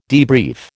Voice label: "debrief", American